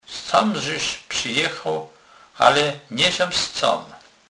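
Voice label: "z camzes", Kurpie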